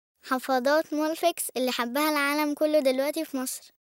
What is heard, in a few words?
Arabic Girl